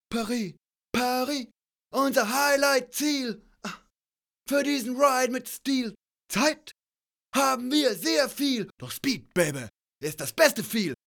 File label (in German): Stimme Sonic EQ Warm Acoustics; Metric Halo v4